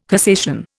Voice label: "cassation", Indian